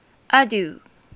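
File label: "adieu", Japanese, female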